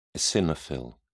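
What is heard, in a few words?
"eosinophil", English, British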